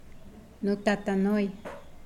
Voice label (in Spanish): Nawat palabras abuelo; pipil